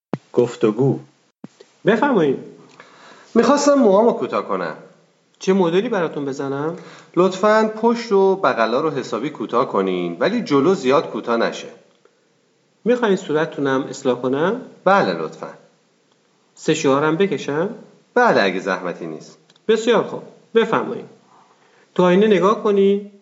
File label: Dialog, persian